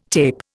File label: "tape", Indian